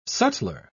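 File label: "sutler", British English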